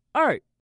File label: single 2, male